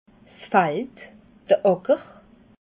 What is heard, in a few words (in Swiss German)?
"fald", alsace